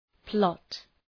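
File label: "plot", English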